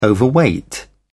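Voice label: "overweight", English, British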